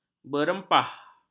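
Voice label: "berempah", Malay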